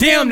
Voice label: "damn", expletives

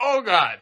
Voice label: "oh god", male; Voice

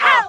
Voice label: "oh", cartoon; ooh ahh

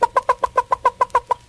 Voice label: buck buck buck buck buck, Voice gibbrish

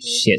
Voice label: "shit", Voice expletives

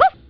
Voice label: "oh" female; ooh ahh

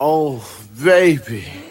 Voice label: "oh baby", voice; ooh ahh

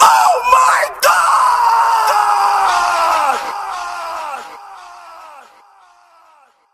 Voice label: oh my god shouted; Voice; expletives

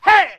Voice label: "hey", martial arts guy

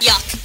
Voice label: "yuck", girl; Voice

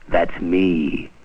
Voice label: that's me, male

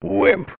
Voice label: wimp male, voice

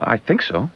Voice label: i think so announcer

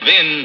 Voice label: then announcer

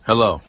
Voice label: "hello", voice

